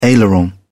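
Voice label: "aileron", British English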